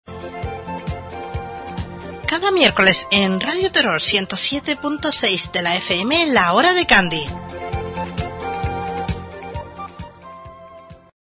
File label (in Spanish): Promo programa, Radio; La hora; Radio Teror